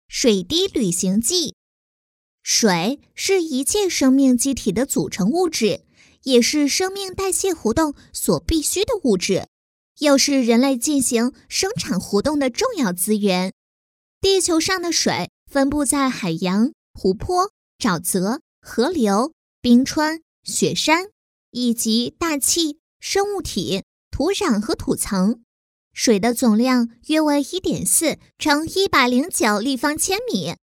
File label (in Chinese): 女 童声男女可爱; 水滴旅行记